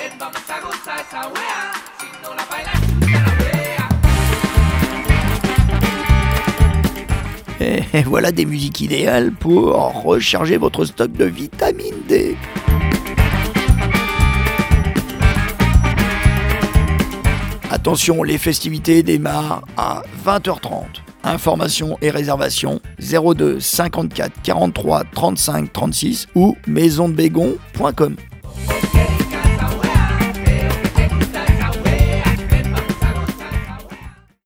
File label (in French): annonce blues cumbia